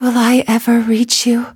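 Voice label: level up, English; cookie run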